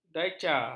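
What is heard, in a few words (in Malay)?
"dalca", Malay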